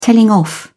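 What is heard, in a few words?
"telling off", British English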